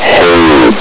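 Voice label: "hole", male; hl2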